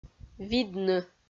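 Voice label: "видны", Komi, female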